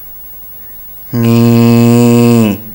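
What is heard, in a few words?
ngii, Malayalam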